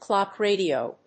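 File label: "clock radio", English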